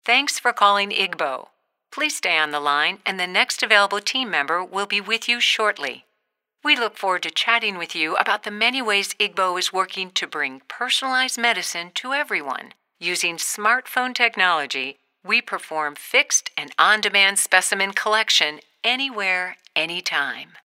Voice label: narration